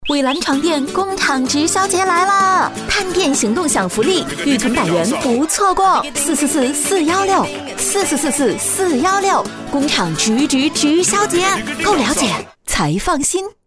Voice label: 女 广告 工厂直销 促销感

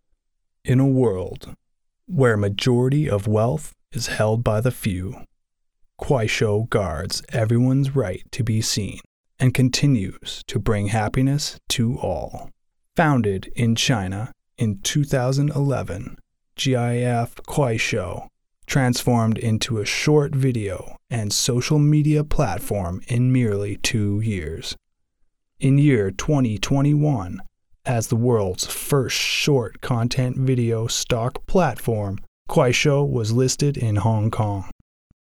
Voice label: 低沉睡前故事

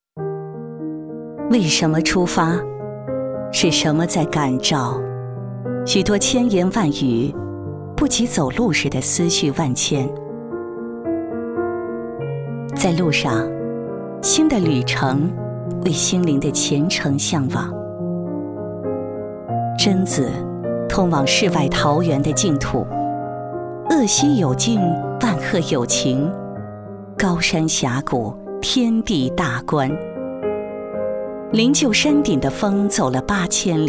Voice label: 女 独白 讲述风格; 榛子

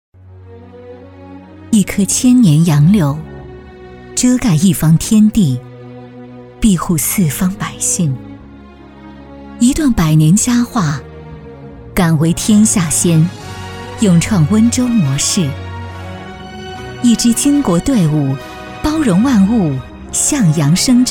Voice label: 女 政府宣传 深情; 柳市供电文明岗宣传片

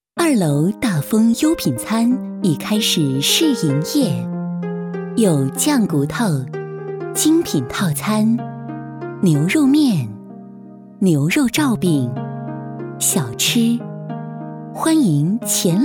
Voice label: 女 促销 稳重; 大丰优品餐

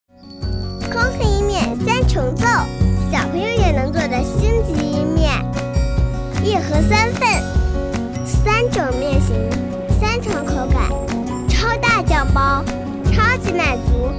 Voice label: 真女童7岁 广告童声; 空刻意面

